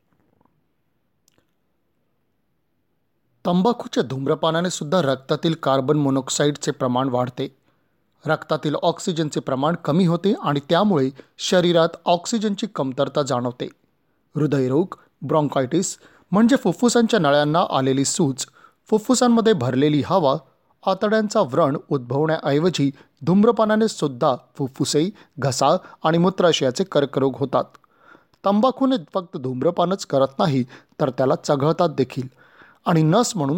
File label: MARATHI MALE